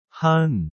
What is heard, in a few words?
"하은", korean